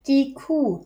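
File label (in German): "Kuh", German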